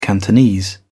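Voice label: "cantonese", British English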